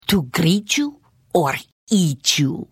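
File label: Hello, Khajiit female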